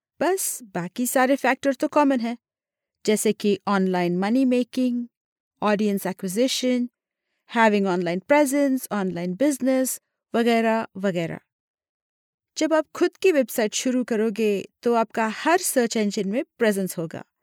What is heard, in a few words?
female hinglish voice over narrative